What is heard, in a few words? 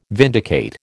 "vindicate", American